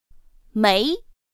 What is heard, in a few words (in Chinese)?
pinyin méi; pinyin2; pinyinji